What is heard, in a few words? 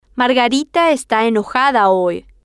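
margarita Esta Enojada Hoy, Spanish, female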